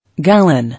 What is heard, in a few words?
"Gallon", English